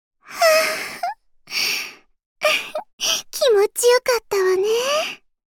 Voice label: Voice victory